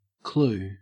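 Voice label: "clue", Australian English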